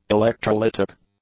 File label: "electrolytic", English; adjectives